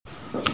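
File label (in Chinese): "mi4feng1", Mandarin Chinese, female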